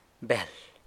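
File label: "bel", San Lucas Quiaviní Zapotec; fish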